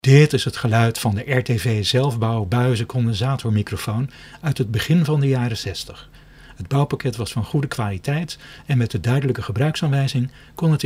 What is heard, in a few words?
RTV mictest NL